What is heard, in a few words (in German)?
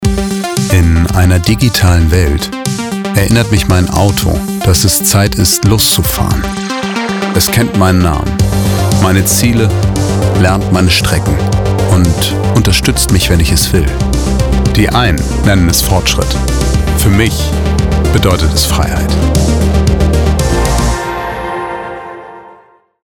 Hörproben Auto Werbung Dynamisch Modern